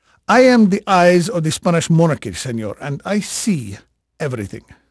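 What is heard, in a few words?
Spanish male, voice; Pirates Of The Caribbean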